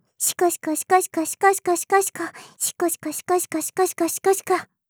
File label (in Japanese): シコシコオンリー できるだけ 速く